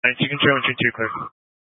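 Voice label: Fire Dispatch, Scanner audio recording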